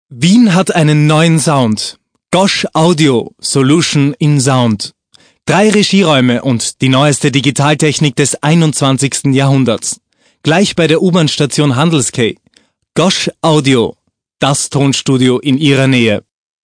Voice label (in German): Demo Werbung